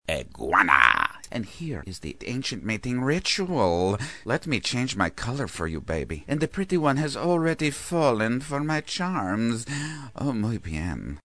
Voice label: Spanish Lover; animation